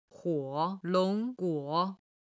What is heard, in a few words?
"huo3 long2 guo3", chinese